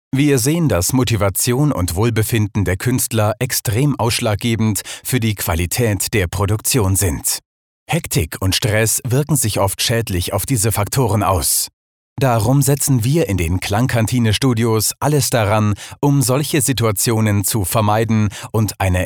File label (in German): freundlich